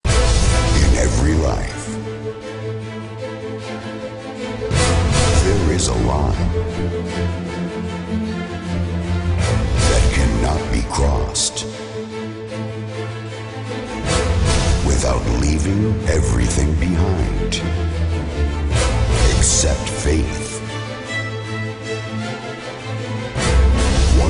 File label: Trailer Voice Theme Drama Voice; Trailer Voice Expansion Pack